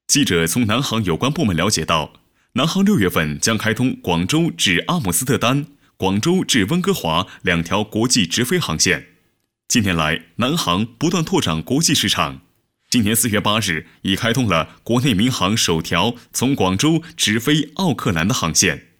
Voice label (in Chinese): 专题 新闻; 南航新闻